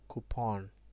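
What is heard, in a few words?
"coupon", Nigerian Pidgin, male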